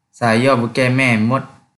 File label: Kelantan-Pattani Malay